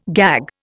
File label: "gag", Indian